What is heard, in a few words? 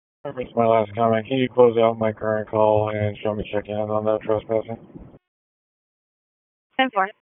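Police Southwest District Dispatch; Raleigh; wake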